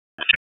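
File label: Sheriff's Office Dispatch 1, p25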